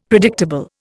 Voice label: "predictable", Indian; Dictionary

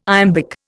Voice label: "iambic", Indian

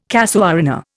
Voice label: "casuarina", Indian

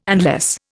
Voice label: "unless", Indian

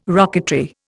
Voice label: "rocketry", Indian

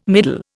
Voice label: "middle", Indian

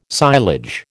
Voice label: "silage", American

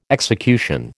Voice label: "execution", American

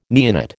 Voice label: "neonate", American

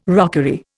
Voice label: "rockery", Indian

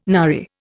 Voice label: "nary", Indian

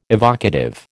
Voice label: "evocative", American

